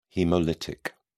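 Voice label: "haemolytic", British English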